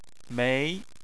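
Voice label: "mei", Chinese, second tone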